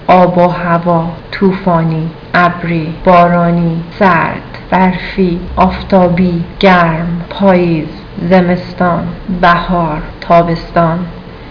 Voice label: farsi; weather